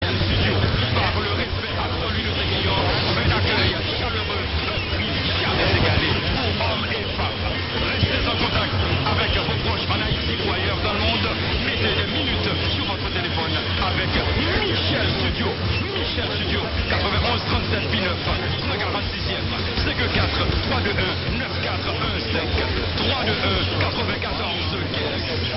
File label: Montreal QC ethnic Haitian; 1410 CJWI